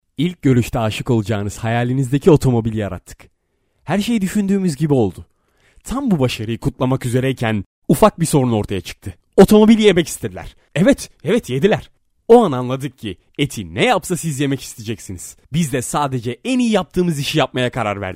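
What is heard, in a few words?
Turkish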